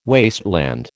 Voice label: "wasteland", American